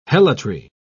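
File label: "helotry", British English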